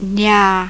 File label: burmese